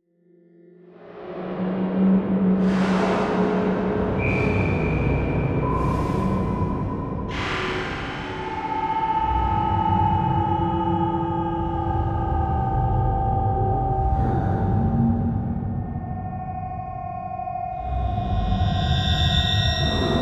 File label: Distant Voice; Module Production Element Title Imaging; Imaging Elements